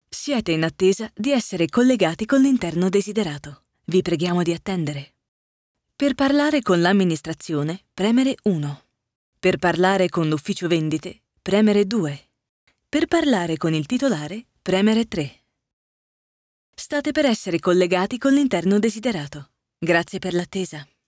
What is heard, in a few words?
IVR